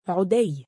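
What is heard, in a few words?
"3udayy" male, Arabic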